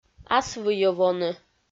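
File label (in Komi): ас выйӧ воны, Komi, female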